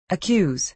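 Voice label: "accuse", American English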